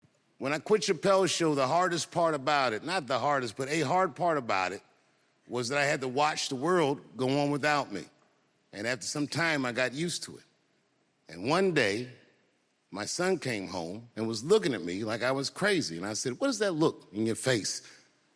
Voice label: comedy